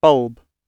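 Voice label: "bulb", British English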